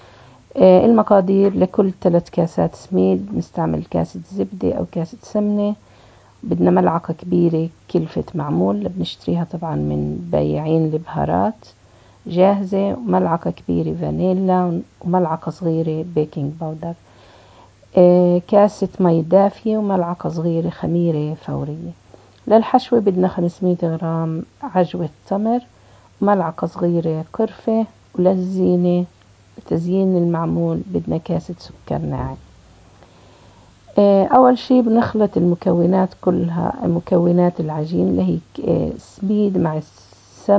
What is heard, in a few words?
Palestinian Recipe, Arabic; Manchester